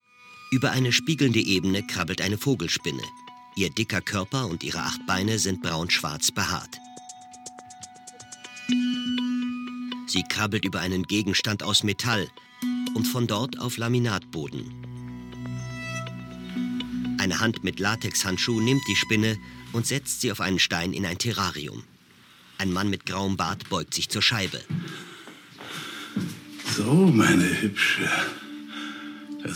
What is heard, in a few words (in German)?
Audiodeskription